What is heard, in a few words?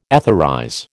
"etherize", American